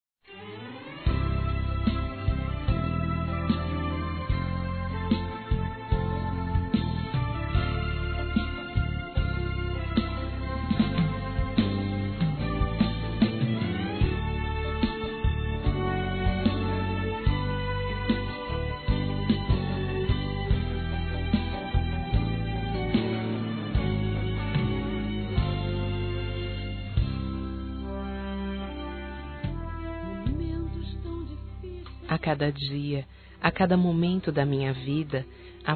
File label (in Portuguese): Mensagem Para Amigo Feminino, Gospel; Telemensagens; Telemensagens 2017